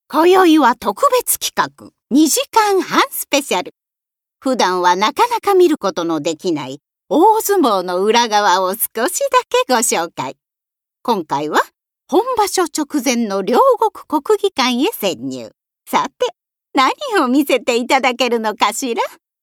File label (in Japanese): 番組ナレ